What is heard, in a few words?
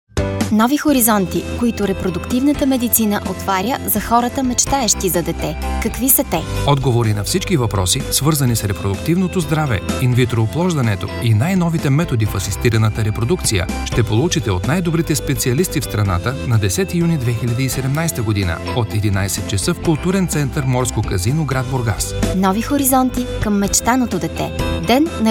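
BG F commercial, Bulgarian, female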